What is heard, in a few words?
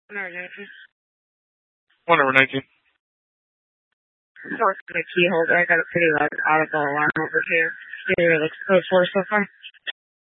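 Tempe police department, scanner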